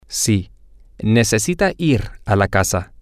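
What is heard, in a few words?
yes he needs to go home, Spanish, male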